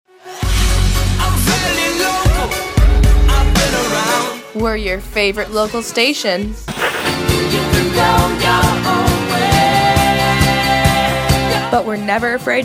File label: Music Liner